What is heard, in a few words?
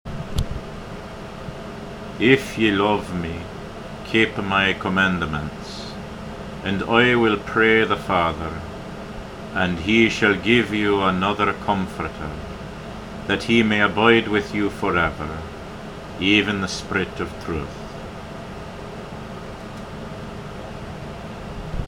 16th century english spoken; if ye love me